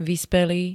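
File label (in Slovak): "vyspelý", Slovak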